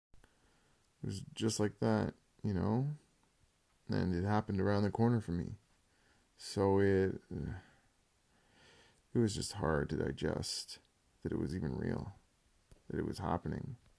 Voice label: testimonial